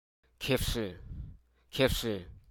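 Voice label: Hruso Aka; cap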